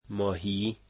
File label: fish, dari